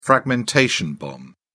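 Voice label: "fragmentation bomb", English, British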